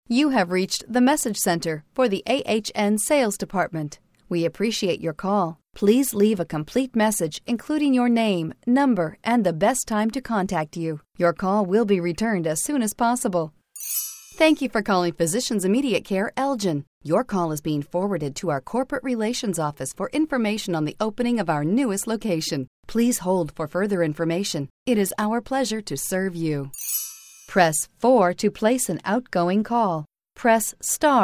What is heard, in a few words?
ivr